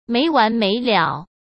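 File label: "没完没了", Mandarin Chinese; hsk; chinese-flashcards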